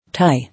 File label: "Tie", English